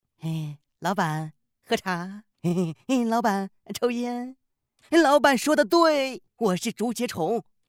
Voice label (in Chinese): 贱萌角色